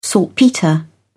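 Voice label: "saltpetre", British English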